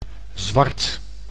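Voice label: "zwart", Dutch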